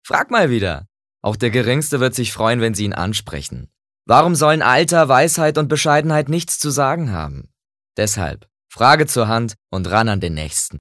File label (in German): Werbung Cool